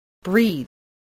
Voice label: "breathe", spelling